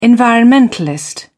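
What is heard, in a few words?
"environmentalist", English, British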